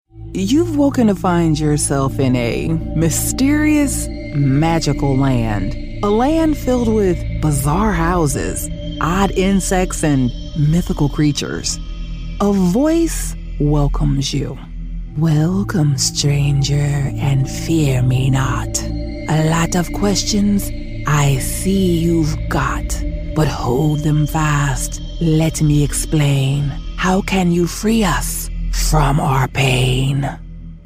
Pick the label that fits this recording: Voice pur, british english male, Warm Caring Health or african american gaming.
african american gaming